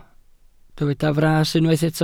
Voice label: "fras", Welsh

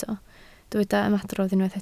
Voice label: "ymadrodd", Welsh

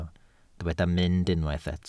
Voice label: "mynd", Welsh

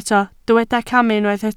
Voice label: "camu", Welsh

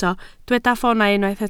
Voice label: "ffoonau", Welsh

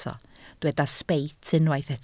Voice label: "sbeit", Welsh

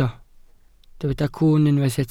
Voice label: "cwwn", Welsh

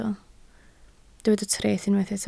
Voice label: "treth", Welsh

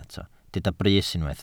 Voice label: "brys", Welsh

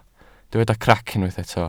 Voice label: "crac", welsh

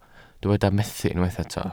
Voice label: "methu", Welsh

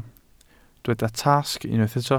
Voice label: "tasg", Welsh

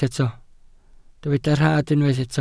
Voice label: "rhad", Welsh

